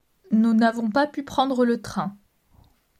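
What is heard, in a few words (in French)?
"Nous n avons pas pu prendre le train", French; grammaire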